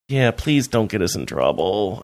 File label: quietly saying yeah please dont get us in trouble